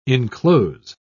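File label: "inclose", British English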